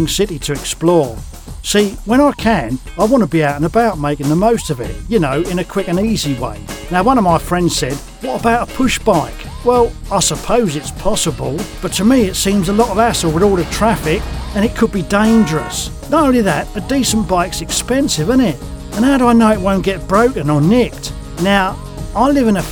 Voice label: Explainer and Corporate Montage

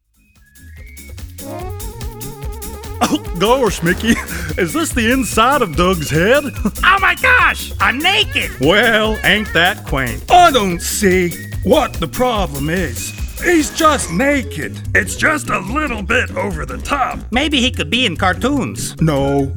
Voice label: Funny Voices

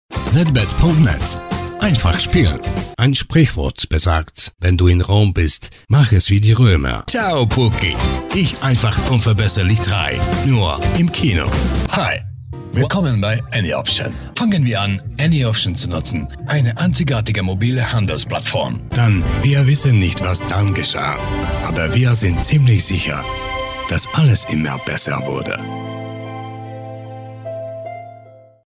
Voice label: german

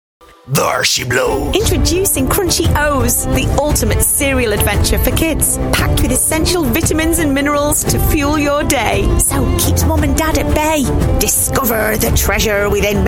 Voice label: Cereal Advert

